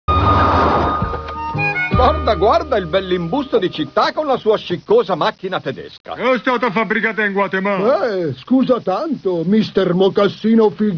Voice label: alien voices